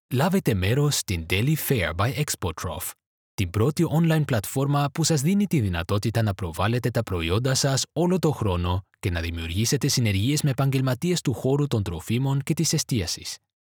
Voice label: Greek corporate videos